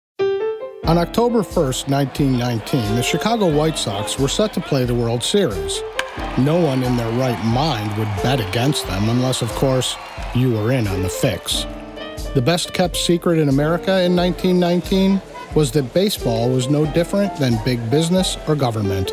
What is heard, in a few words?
Narration